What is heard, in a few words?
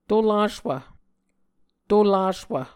"tolaswv", muscogee